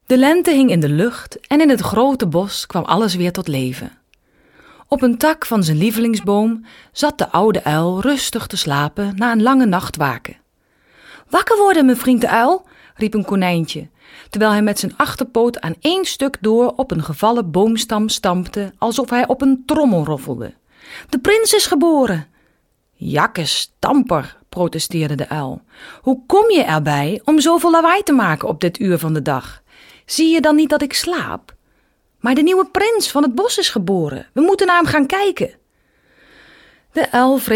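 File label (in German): Niederlande, weiblich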